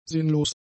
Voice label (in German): "sinnlos", german; adjectives